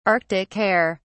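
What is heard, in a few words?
"arctic hare", English, British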